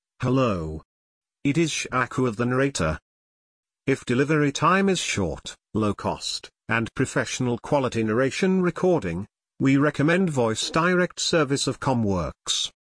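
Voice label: speech English male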